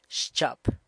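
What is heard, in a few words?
"xchyap", San Lucas Quiaviní Zapotec; girlfriend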